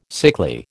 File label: "sickly", American